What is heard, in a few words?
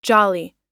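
"jolly", American English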